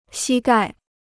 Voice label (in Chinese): "膝盖", Chinese